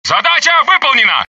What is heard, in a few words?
russian success; dialogue; mohaa